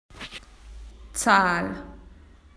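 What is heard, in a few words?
"number", Australian English; PictureDictionary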